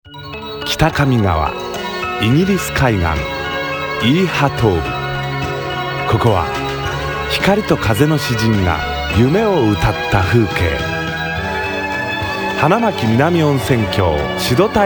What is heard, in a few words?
Male 35 45 years Medium Low, japanese japan